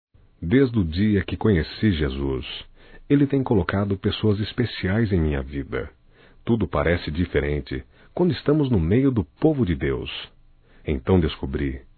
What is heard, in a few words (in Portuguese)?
Amizade Masculino, Evangelico; Telemensagens 2017